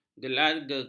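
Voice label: "gelegata", Malay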